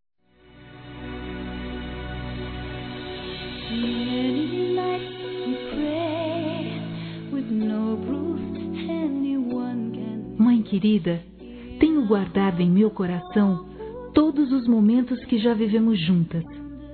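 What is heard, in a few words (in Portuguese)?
prayer for mother, female; Dia das Mães; ORAÇÃO PELA MÃE; Telemensagens 2017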